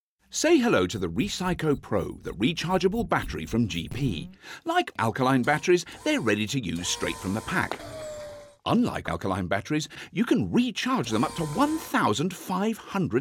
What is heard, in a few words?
Robot AD